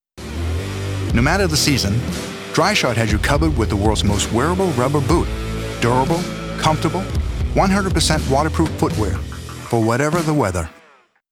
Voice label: FRIENDLY, CONVERSATI; DRYSHOD